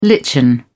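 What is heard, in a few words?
"lichen", British English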